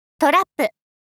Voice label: トラップ お姉さん×中音域

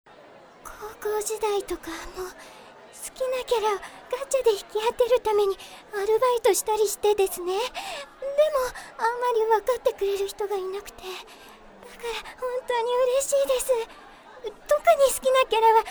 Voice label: 自己紹介コミュ力若干低め, ASMR

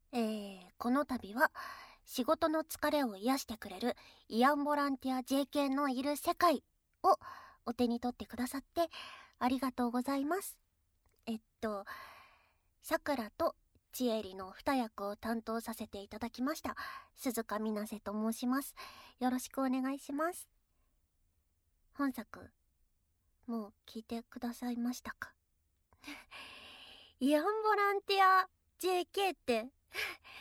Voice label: フリートーク, ASMR

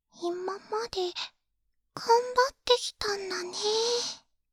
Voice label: 励ましボイス

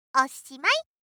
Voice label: システムボイス

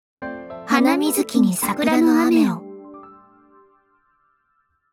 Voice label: タイトルコール, ASMR

